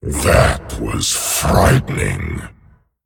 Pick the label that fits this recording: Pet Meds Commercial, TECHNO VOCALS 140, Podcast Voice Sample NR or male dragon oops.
male dragon oops